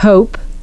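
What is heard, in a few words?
"hope", English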